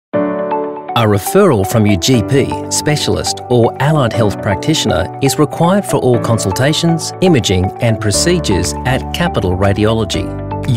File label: Male